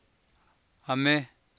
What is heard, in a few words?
"a'mé", copala triqui